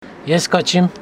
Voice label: Homshetsi i dont come